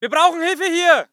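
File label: voice german infantry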